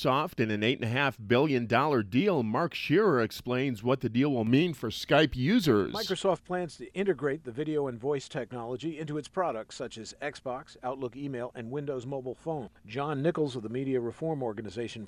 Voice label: wyoming radio news